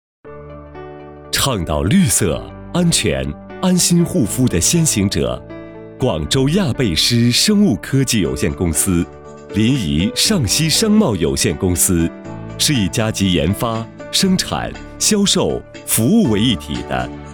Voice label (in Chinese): 男 科技感